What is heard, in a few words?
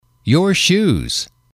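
"shoes", English, male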